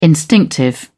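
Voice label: "instinctive", British English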